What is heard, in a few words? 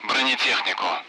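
"armor", Russian; Allied Flight; DCS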